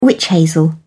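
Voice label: "wych hazel", English, British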